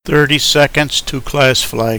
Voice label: 30 sec till class flag, Male English